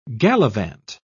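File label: "galavant", British English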